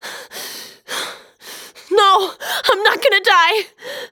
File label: Panic; zps